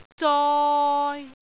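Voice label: "zoi3", Cantonese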